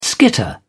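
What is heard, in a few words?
"skitter", British English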